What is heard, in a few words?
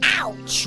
ouch goofy, voice; ooh ahh; ow ouch